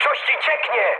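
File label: tank damaged, mood high, Polish speech; War Thunder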